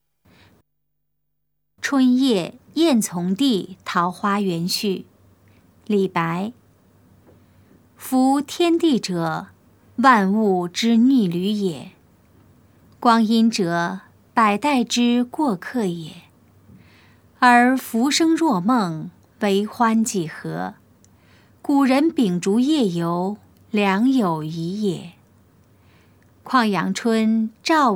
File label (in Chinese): putonghua; 春夜宴從弟桃花園序; 普通話